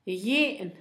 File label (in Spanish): chile, Zapoteco, Asunción Ocotlan; Comestibles; INALI; Haspelmath